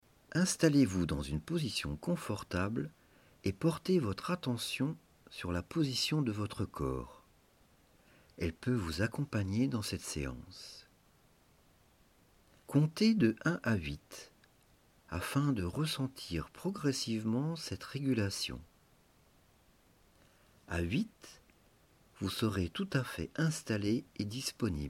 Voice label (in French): Mer Animal Compter Homme Sans Musique